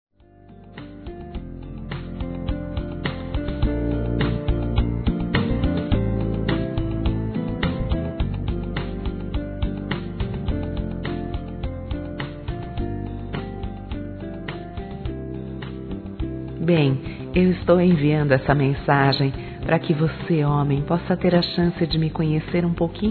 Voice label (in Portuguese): NOVO ROMANCE F; Telemensagens; Sensuais; fem